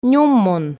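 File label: "нюммун", Komi, female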